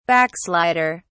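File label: "backslider", British English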